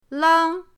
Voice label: "lang1", pinyin; pinyindct-open

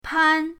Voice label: "pan1", pinyin; pinyindct-open

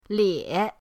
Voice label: "lie3", pinyin; pinyindct-open

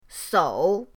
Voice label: "sou3", pinyin; pinyindct-open

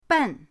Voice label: "ben4", pinyin

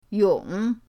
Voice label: "yong3", pinyin

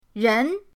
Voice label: "ren2", pinyin

